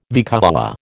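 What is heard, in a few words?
"vicuña", American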